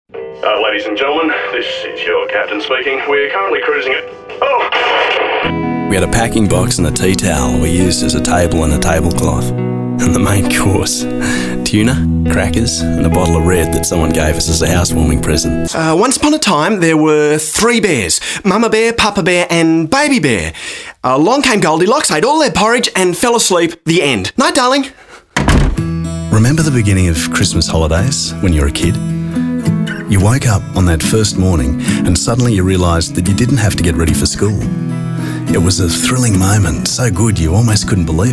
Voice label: VO Voice Acting

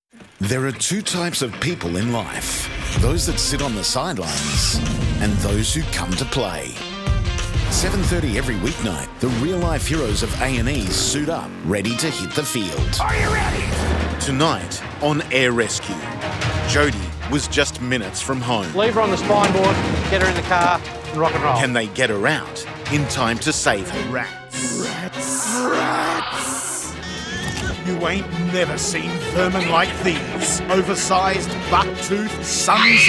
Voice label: Promos

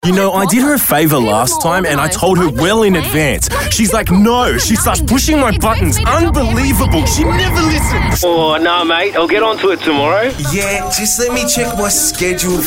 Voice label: Voice Acting

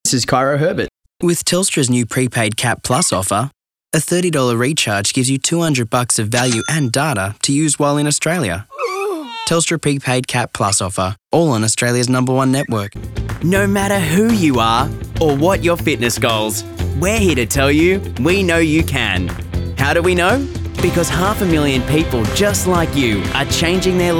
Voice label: Natural

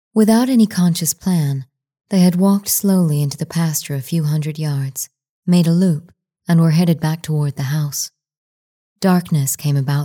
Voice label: US accent romantic fiction

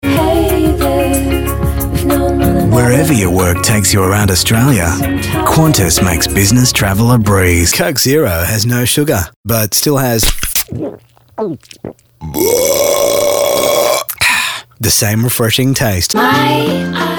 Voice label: soft

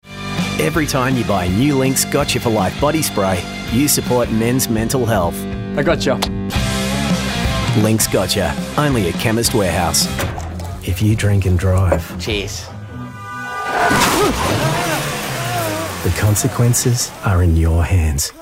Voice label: Voice Over

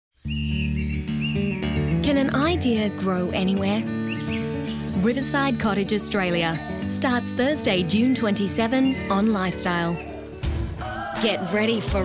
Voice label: Promos, voices

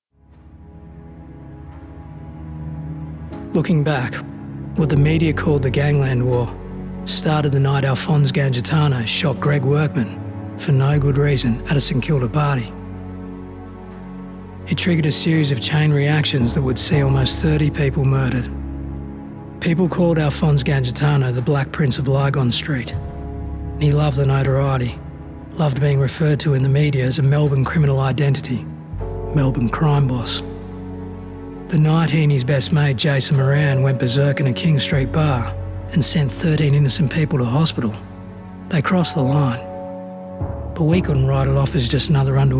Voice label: Narration

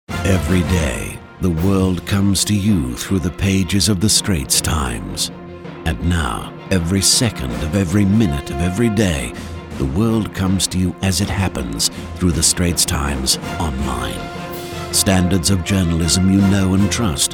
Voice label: neutral

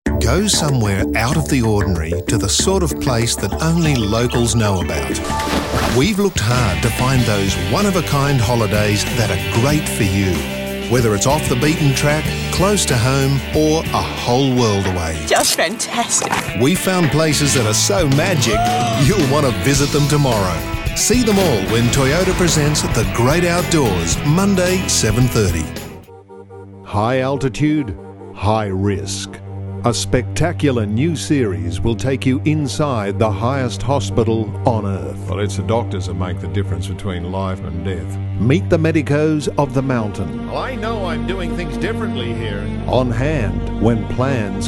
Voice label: promos

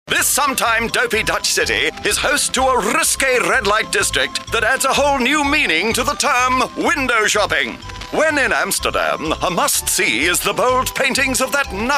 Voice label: character

